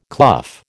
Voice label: "clough", American